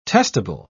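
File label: "testable", English, British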